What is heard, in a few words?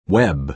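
"web", American English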